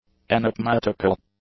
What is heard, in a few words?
"enicmatical", English; adjectives